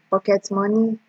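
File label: "pocketmoney", Nigerian Pidgin, female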